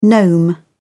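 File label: "gnome", British English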